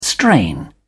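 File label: "strain", British English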